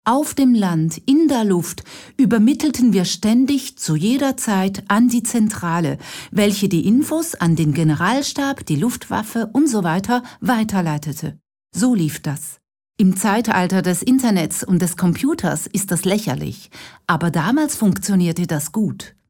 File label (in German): Kommentar Rueckblickend, deutsch